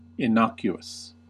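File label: "innocuous", US English; pronunciations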